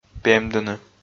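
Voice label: "пемдыны", Komi, male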